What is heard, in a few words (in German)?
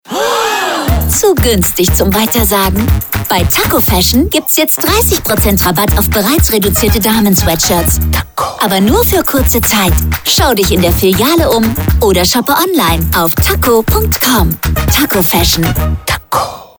SPOT; TAKKO; werbesprecherin